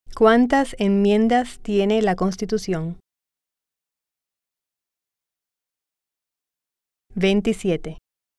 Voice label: Spanish